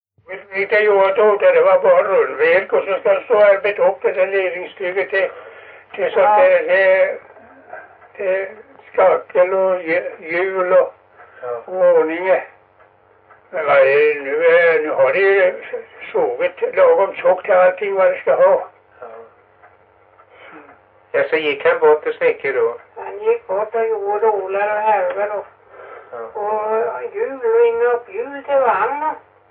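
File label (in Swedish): Stenåsa Öland, Svenska sagor dialekter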